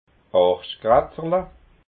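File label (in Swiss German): "arschkratzerla", Alsatian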